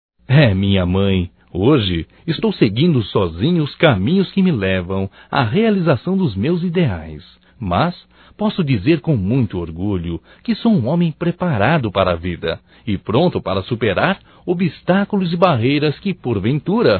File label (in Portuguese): SAUDADES MAE, Só Voz, masc; Telemensagens; Telemensagens 2017